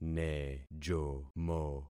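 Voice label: "nejomo", concatenated syllables; Affixing experiment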